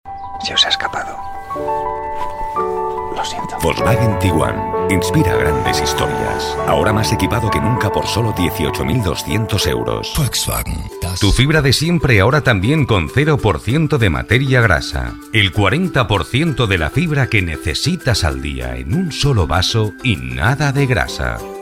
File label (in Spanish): Spanish European Castilian Voiceover